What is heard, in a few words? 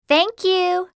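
"thank", English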